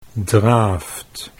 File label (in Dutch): "draaft", Dutch